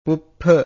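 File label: "puppha", Pali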